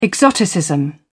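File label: "exoticism", British English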